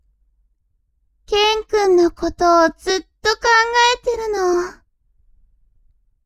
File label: woman